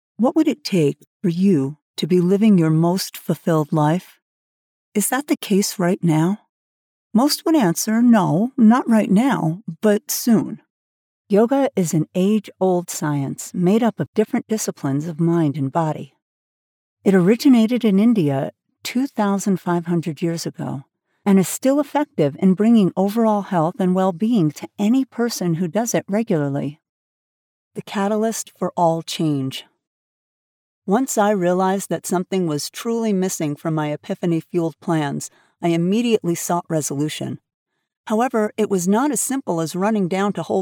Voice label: non-fiction audiobook

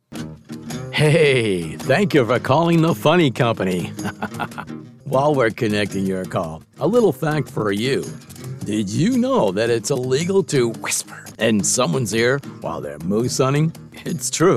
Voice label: comedy on hold

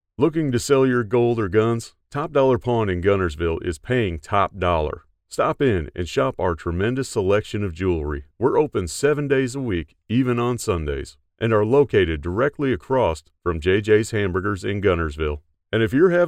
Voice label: Comercial; Top Dollar Pawn

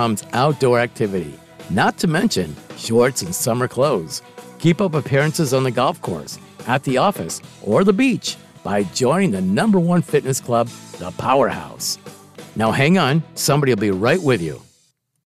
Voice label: ON HOLD MESSAGE; POWERHOUSE

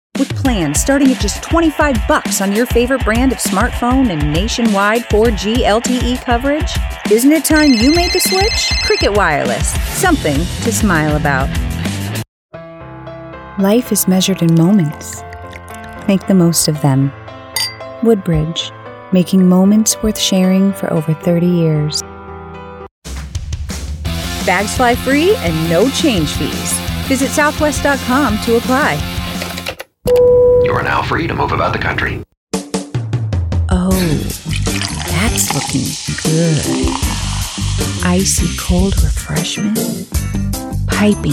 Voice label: commercial

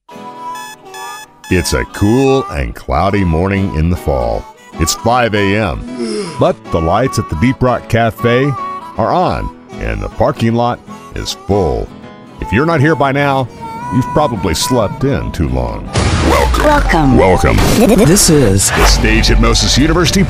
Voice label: VOICE & PRODUCTION DEMO

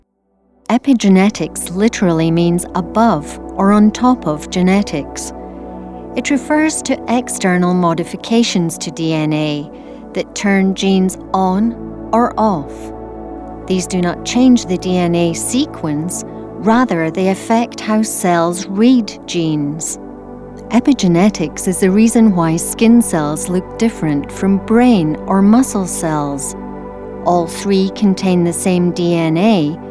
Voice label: Medical Science Narration

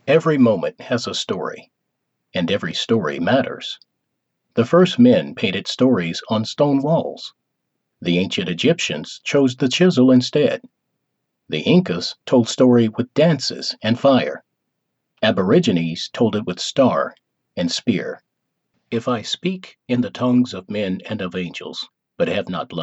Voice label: Narration

